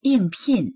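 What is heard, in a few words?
"ying4pin4", Mandarin Chinese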